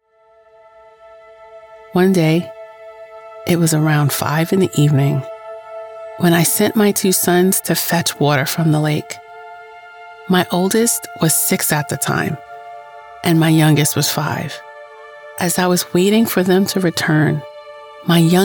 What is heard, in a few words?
audio book